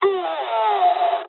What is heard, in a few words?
jp damaged pilot, speech jp